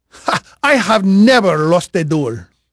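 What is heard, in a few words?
Spanish male, voice; Pirates Of The Caribbean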